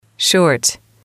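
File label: "short", English, female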